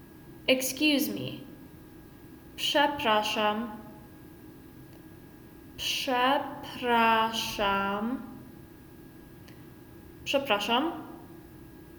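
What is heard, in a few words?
excuse me, Polish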